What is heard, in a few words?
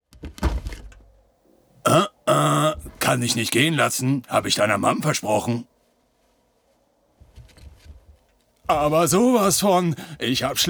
Animation, Lip-Sync (Synchron), German; Norddeutsch